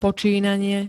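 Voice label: "počínanie", Slovak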